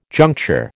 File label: "juncture", American